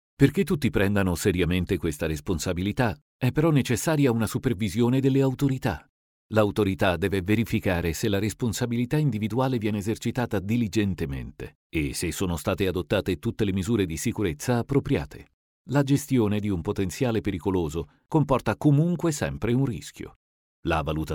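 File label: Italian male voice over, narrative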